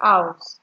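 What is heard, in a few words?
"house", Nigerian Pidgin, female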